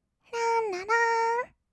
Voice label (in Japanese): らんららーん, kawaii voice; ASMR; 色々なワンショット